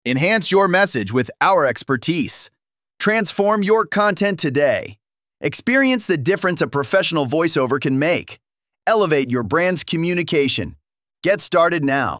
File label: voiceover webpage message